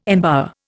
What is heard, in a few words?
"embower", Indian